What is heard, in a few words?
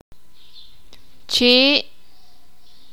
"boca", sakapulteko